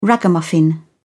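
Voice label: "ragamuffin", British English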